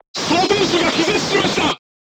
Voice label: voice message charger contused, crew tanks japanese